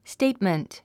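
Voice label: "statement", English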